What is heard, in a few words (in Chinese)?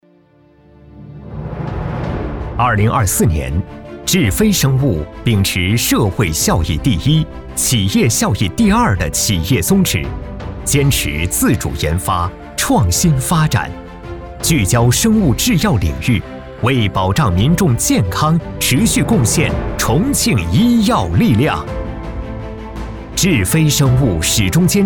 男 企业宣传类